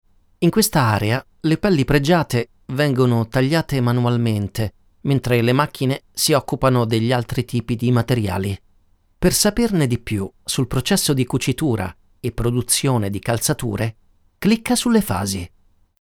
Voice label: e-learning